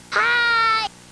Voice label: hi, Hi (Rain Kid); katamari